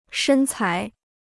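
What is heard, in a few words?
"身材", Chinese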